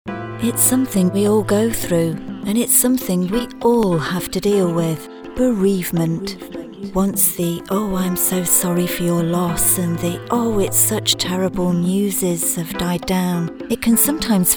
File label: Commercial Empathetic